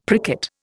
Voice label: "pricket", Indian; Dictionary